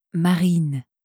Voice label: "marine", franzoesische maedchennamen

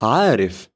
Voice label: "arif", arabische jungennamen

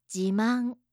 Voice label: "jiman", japanische

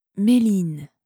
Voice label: "meline", franzoesische maedchennamen

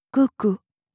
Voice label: "coco", franzoesische maedchennamen

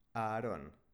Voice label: spanische jungennamen aaron

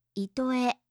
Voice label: "itoe", japanische maedchennamen

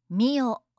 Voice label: "mio", japanische

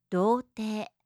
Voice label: "dotei", japanische maedchennamen

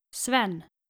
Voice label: "sven", skandinavische jungennamen

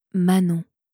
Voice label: "manon", franzoesische maedchennamen

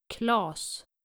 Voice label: "klaas", nordische jungennamen

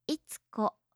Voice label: "itsuko", japanische maedchennamen

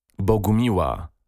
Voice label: polnische maedchennamen bogumila

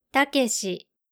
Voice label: "takeshi", japanische jungennamen

